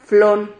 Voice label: "flon", Silabas

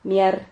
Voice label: "miar", Silabas

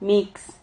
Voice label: "mix", Silabas

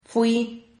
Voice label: "fui", Silabas

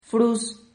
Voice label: "frus", Silabas

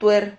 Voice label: "tuer", Silabas

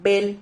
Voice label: "vel", Silabas

